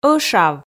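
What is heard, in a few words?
"ӧшав", Komi, female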